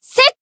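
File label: attack, Japanese; anaberu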